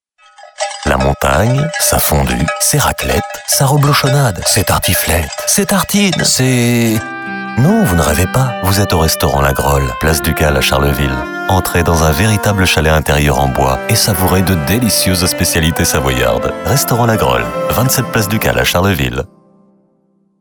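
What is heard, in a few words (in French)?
spot, spots radio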